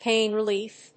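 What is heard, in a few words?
"PAIN RELIEF", English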